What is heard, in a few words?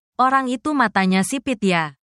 indonesian phrases